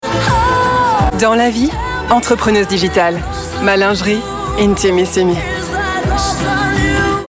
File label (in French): pub doublage egerie; INTIMISSIMI; Chiara Ferragni